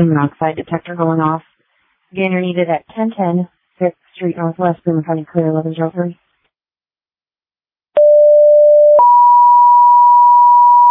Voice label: Waverly Fire, dispatch